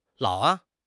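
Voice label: "lao3", Mandarin Chinese; HSK3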